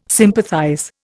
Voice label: "sympathize", Indian

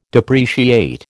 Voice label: "depreciate", American

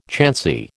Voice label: "chancy", American

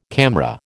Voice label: "CAMRA", American

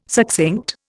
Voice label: "succinct", Indian

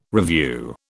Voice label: "revue", British

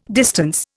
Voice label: "distance", Indian

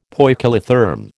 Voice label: "poikilotherm", American

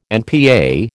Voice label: "NPA", American

